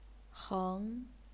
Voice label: "heng2", Chinese pinyin

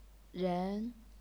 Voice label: "ren2", chinese pinyin

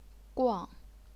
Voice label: "guang4", chinese pinyin

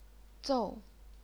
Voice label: "zou4", Chinese pinyin

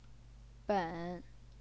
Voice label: "ben3", Chinese pinyin

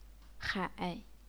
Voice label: "hai3", chinese pinyin

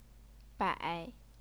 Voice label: "bai3", Chinese pinyin

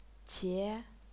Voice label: "qie2", chinese pinyin

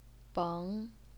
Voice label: "beng2", Chinese pinyin

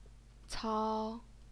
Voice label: "cao1", Chinese pinyin

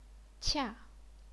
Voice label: "qia4", chinese pinyin

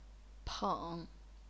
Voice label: "peng3", chinese pinyin

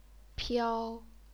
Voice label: "piao1", chinese pinyin

